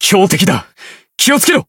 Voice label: Voice encount fear jp

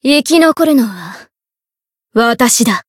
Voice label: encount fear jp; suzette

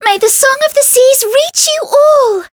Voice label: Voice chant; pizzica

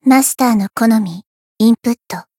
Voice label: voice jp

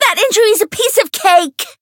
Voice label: Voice variable chant

